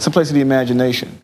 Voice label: LoFi Phrases, LoFi Vocals; Vengeance Samples